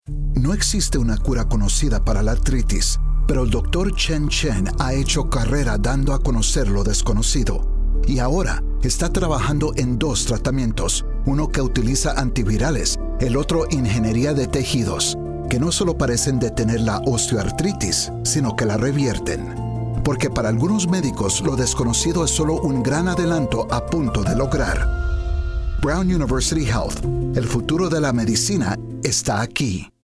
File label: Spanish Radio; Osteoarthritis; Illumination Campaign